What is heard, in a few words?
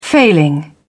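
"failing", British English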